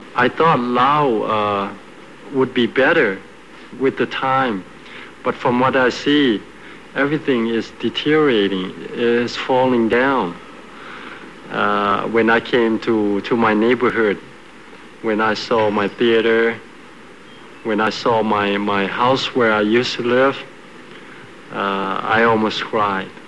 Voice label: Asian Laotian, dialect